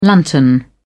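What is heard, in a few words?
"lantern", British English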